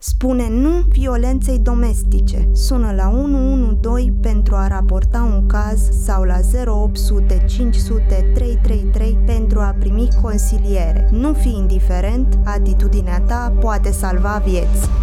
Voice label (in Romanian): radio spot RO; osce